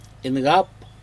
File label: "incap", Aneityum